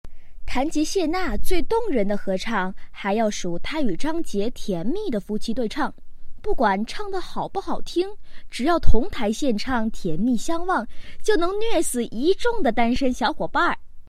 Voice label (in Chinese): 演绎类